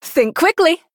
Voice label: Female Human Attack; en